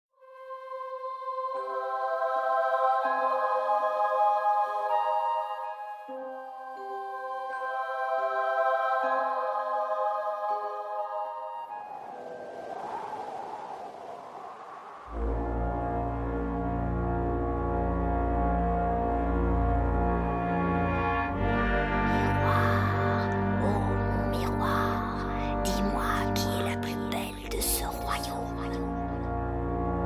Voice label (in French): Bande annonce, VOIX NARRATION; Mystère, douce féérie, conte de fée; le miroir magique